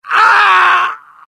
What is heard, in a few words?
death, UK; mohaa; dialogue